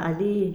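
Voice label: "alli", Spanish, La Plata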